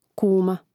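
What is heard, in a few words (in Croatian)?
"kuma", Croatian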